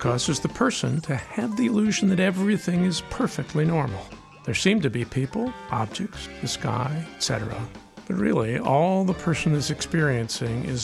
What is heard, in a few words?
brain, narration